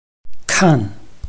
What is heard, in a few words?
"kan4", Chinese